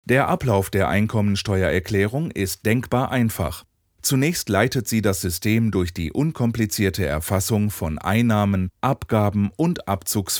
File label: imagefilm elearning; Muttersprache Deutsch